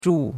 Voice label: "zhu4", chinois; Cours-de-Chinois